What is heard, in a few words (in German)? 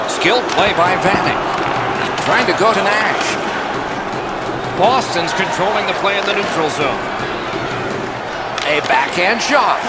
kommentare spielgeschehen; National Hockey League 2019; gamesoundopedia